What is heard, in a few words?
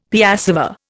"piassava", Indian; Dictionary